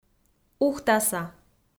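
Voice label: "ухтаса", Komi, female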